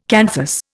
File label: "canthus", Indian